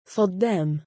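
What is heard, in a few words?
male "9addaam", Arabic; صَدَّام; ṣaddām; サッダーム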